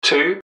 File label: two, english man, subway announcers; MetrostroiAddon